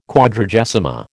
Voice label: "Quadragesima", American